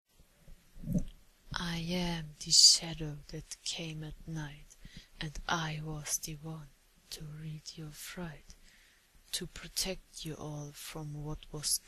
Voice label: F4A Poem Romantic; The Saving

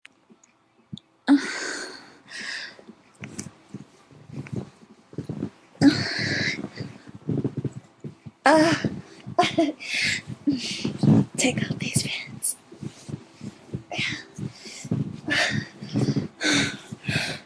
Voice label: Spanish; Quickie

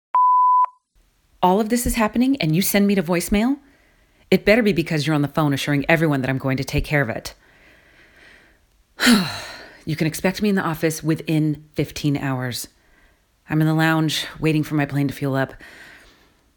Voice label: Voicemail

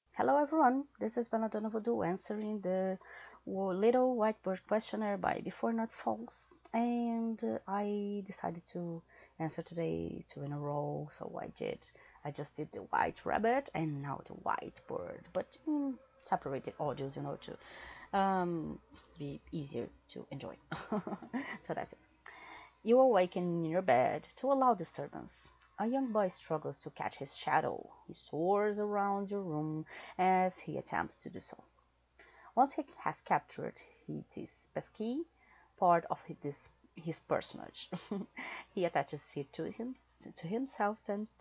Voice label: F4A Answers Emotional; The Little White Bird Questionnaire

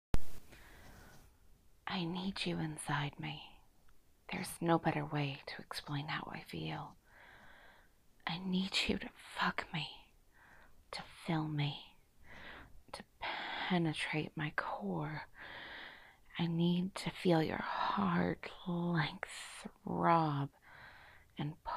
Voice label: erotic poetry, sensual, F4M; urges; cravings; needs

